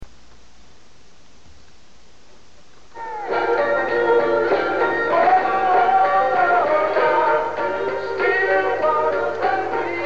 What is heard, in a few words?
Radio 219 recording